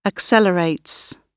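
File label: "accelerates", British English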